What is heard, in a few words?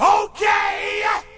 "oookkk", male; MALE MISC